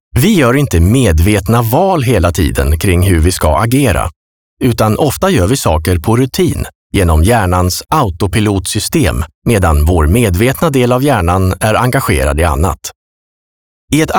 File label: E-learning